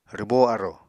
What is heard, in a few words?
"rwbó arò", apatani